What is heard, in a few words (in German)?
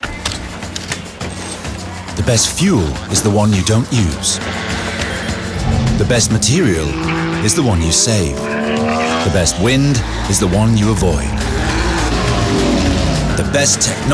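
British English, Fremdtake Neutral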